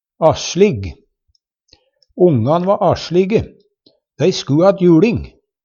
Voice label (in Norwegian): "asjlig", Numedalsmål; Ongan va asjlige. Dei sku hatt juling.; Adjektiv